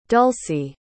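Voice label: "dulcie", English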